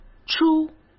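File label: "出" Mandarin Chinese; hsk; chinese-flashcards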